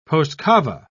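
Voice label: "postcava", British English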